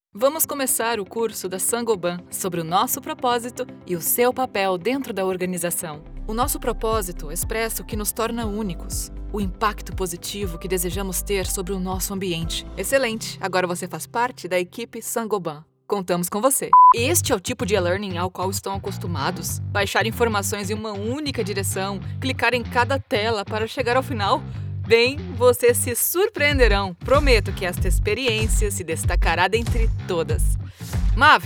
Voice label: Educational Training 4 Styles Charismatic Confident Medical Children